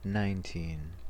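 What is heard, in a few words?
"nineteen", US English, stressed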